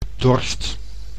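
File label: "dorst", Dutch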